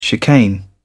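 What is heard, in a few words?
"chicane", British English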